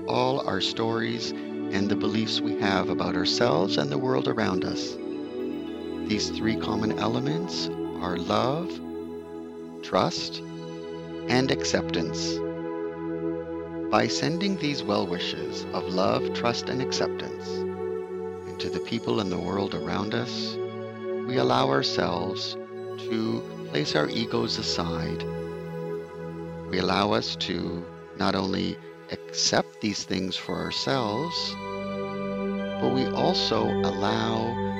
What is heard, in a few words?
Loving Kindness Meditation with Music